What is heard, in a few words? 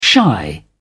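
"shy", British English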